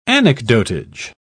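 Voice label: "anecdotage", British English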